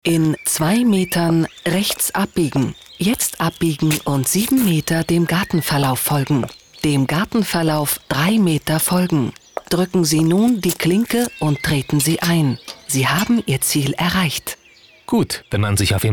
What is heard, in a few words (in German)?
Funk Navigationssystem; BVR